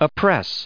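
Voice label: "oppress", US English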